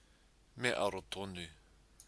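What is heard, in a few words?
"me aro tonu", Maori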